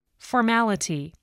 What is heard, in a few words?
"formality", English voice, spelling